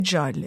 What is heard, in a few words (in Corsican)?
“gialle”, Moita Verde (nord)